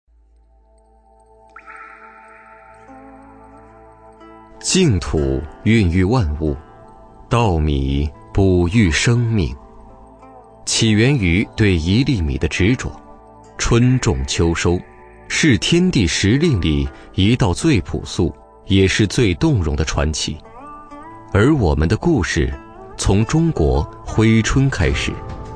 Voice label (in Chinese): 男 纪录片 农业; 珲春农业木天